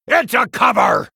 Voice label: Male Human Attack

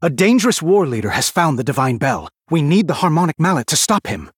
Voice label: Male Human; Anduin

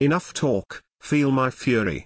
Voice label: Male Night Elf; Kurtrus; Scabbs

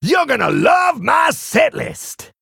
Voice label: Male Tauren Greetings; ETC King of Rock

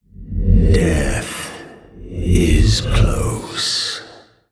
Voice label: Male Old God In Play

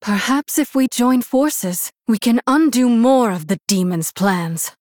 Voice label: Human Female; Jaina; Victory

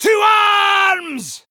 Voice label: Male Dwarf

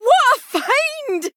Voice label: Female Dwarf

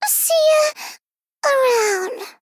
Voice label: Female Gnome Death

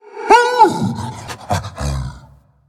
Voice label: Undead Beast Emote Thanks

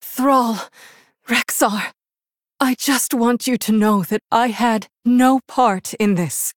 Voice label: Female Human

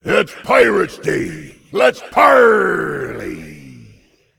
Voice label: Demon Emote Pirate Day; Patches

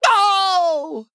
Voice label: Male Goblin Death

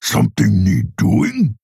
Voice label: Male Orc Play

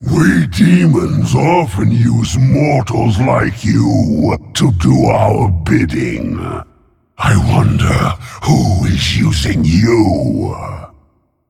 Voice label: Male Demon Idle; Magtheridon

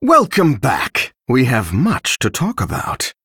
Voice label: Male Dragon General

